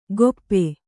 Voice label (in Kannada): "ಗೊಪ್ಪೆ", Kannada